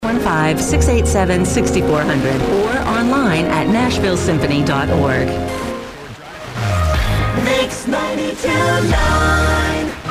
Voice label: lcl ads, FM DX; ADULT CONT; Es (1287 miles); WJXA 92.9 NASHVILLE TN 97; Mix 92.9